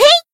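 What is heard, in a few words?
Voice attack, Japanese; sincere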